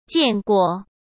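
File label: "见过", Mandarin Chinese; hsk; chinese-flashcards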